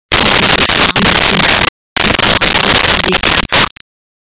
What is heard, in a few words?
speech nav; google android apps maps